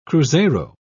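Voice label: "cruzeiro", British English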